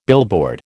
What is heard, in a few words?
"billboard", American